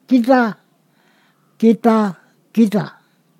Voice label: "we", Ponosakan; pl incl